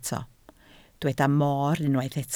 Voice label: "moor", Welsh

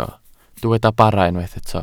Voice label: "bara", Welsh

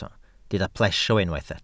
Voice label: "plesio", welsh

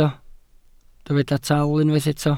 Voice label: "taal", Welsh

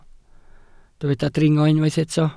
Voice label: "dringo", Welsh

